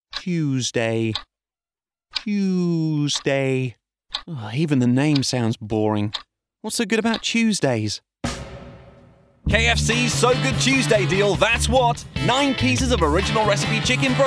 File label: Natural Hard Sell; KFC